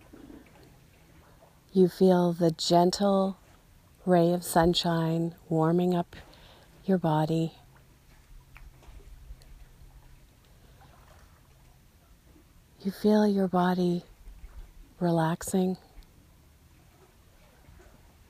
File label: Meditation by the Water